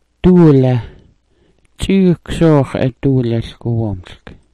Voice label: "duula", smalgyax